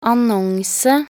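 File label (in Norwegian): "annonse", Norwegian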